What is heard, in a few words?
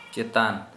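Santali male above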